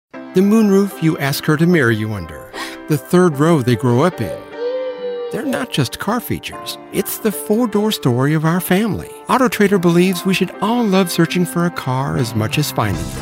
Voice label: Commercial